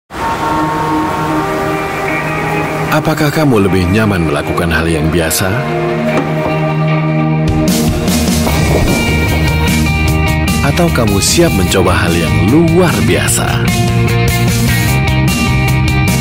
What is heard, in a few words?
Bahasa Indonesia; Vivo V7 FullView Display